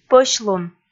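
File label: "пӧсьлун", Komi, female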